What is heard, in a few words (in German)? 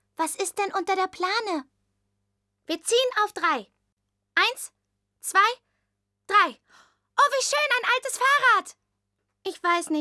Kinder Animations Comicstimme